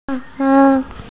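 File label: "da4lou2", Mandarin Chinese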